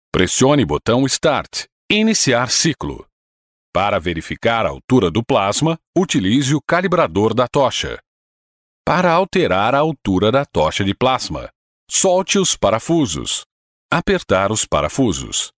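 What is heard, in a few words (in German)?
Portuguese, Freundlich; Fremdtake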